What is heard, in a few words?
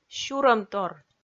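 "сюрӧмтор", Komi, female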